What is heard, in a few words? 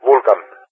"Vulcan", ENG; Allied Flight; AirDefence; DCS